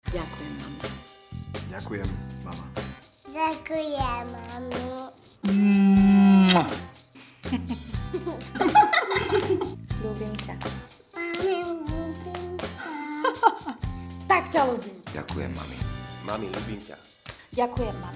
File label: radio spot